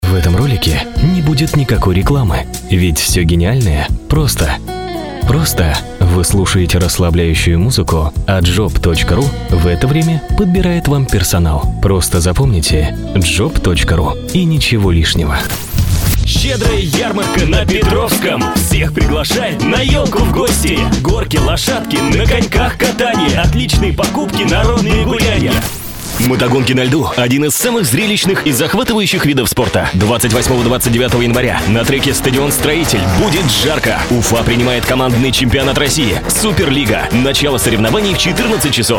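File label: commercials, male announcer; roliks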